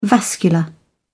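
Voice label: "vascular", British English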